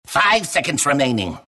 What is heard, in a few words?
announcer count; dlc clockwerk